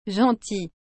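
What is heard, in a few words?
"gentil", French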